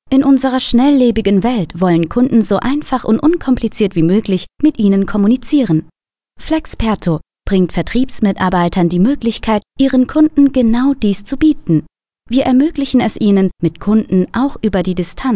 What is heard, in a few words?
GERMAN